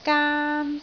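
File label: "gaan1", Cantonese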